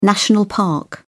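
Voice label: "national park", British English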